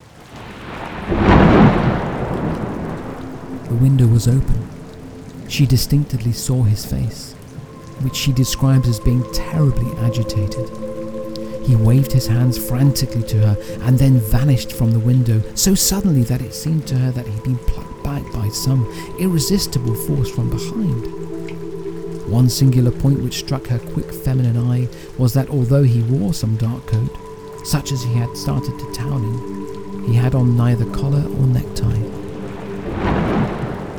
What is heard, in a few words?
narration; The Window Was Open